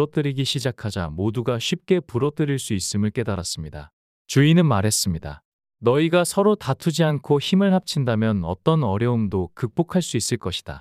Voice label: 주인과 개들 이야기 남자

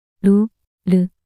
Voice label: 루르 낱소리 여자

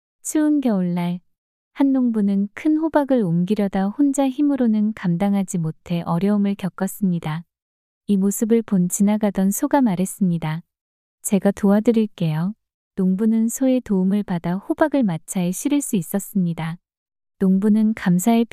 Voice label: 이야기 여자; 소와 농부의 협력

